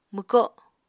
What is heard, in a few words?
"mwko", Apatani